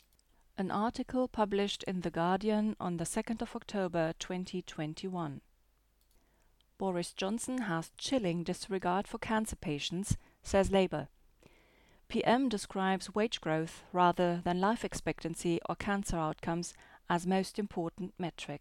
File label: EN Voice Sample